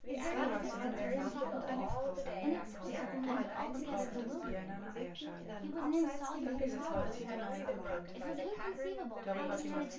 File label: female synthconv mix